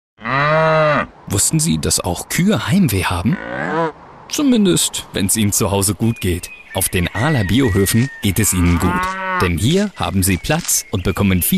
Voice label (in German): Werbung Freundlich